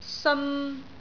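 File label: "seon3", Cantonese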